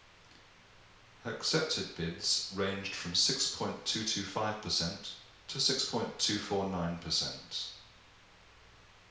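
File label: Sim Data room near male, reverberant environments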